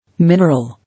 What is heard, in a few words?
"Mineral", English